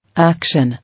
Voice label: "Action", English